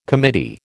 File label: "committee", American